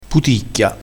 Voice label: "puticchia", crespinese

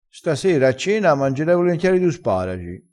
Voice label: "sparagio", crespinese

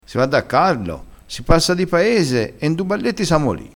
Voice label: "n du balletti", crespinese

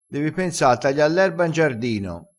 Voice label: "ora ci penso", crespinese; vocabolario dialettale

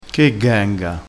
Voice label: "ghenga", crespinese

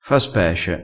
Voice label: "fa spece", crespinese

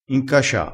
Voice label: "incacia", crespinese

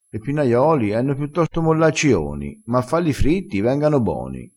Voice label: "mollaccione", crespinese

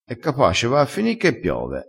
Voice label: "e capace", crespinese

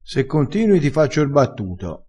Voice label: "fa r battuto", crespinese

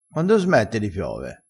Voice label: "so na sega", crespinese

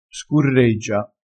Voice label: "scurreggia", dialettale

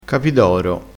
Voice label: "capidoro", crespinese

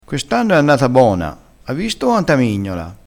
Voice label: "mignola", crespinese

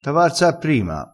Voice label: "av", crespinese

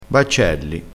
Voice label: "baccelli", vocabolario dialettale

